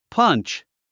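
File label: "punch", English; 発音 pʌ́ntʃ パンチ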